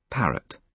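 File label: "parrot", British English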